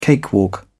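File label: "cakewalk", English, British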